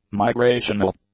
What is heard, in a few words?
"migrational", english; adjectives